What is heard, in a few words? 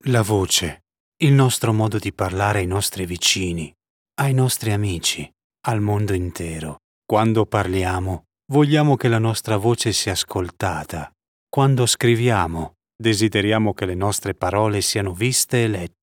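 ITA Trailer Emotional, Italian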